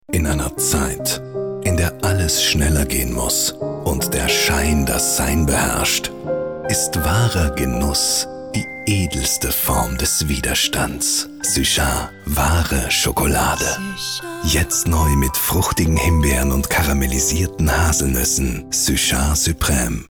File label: TV audio; Suchard